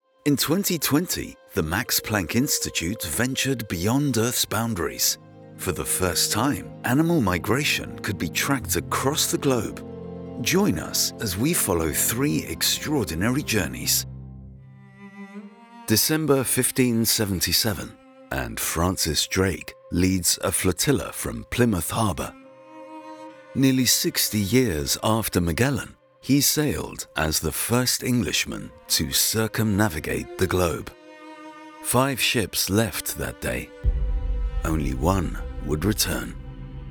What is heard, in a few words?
narration, voice acting